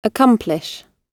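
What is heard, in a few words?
"accomplish", British English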